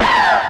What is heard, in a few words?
"oh" nongender shout